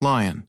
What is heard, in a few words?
lion, American